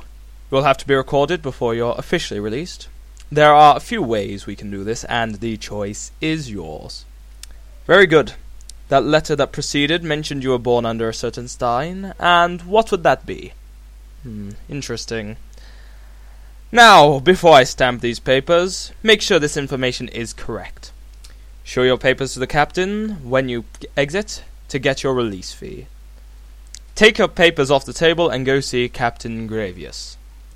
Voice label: breton